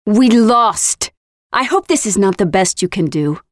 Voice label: Lose, Voiceovers; Project Argo